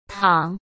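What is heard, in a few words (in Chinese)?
"淌", Mandarin Chinese; chinese flashcards; hsk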